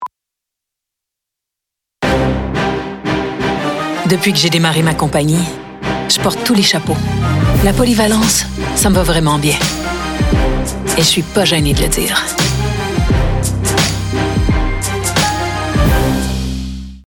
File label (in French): Web French VO pub; Cadillac; Cossette; voix hors champ